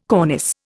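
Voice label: "cornice", Indian English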